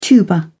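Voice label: "tuba", British English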